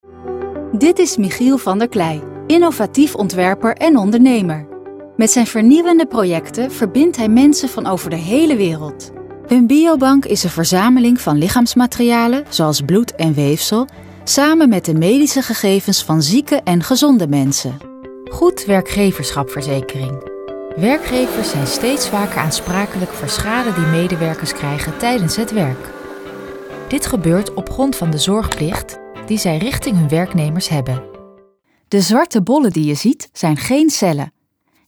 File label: audioreel corporate